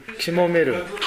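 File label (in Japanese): "kimomeru", 南山形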